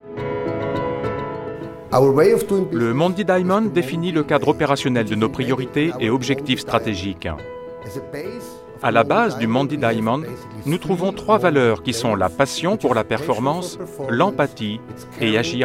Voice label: voix off voiceover; mondi

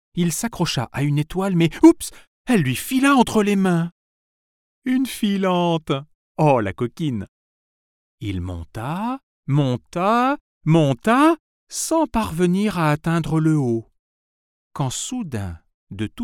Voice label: voix off conte; mur celeste

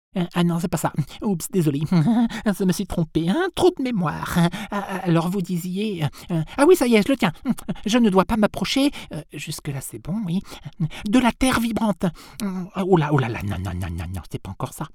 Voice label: voix off conte; habite lanterne

